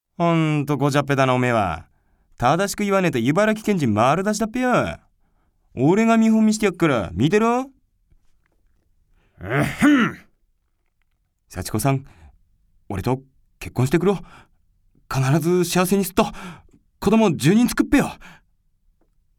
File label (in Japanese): 方言